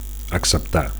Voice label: "acceptar", Catalan; to accept

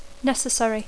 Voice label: "necessary", English; adjectiu; necessari

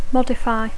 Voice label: "modify", English

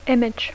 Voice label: "image", English

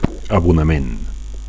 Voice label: "abonament", Catalan; subscription

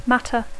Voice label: "matter", English; assumpte; qüestió; matèria

